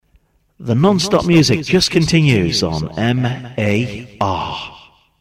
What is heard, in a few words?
Shoutcast, Voiceovers; MAR